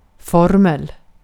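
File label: Swedish vocabulary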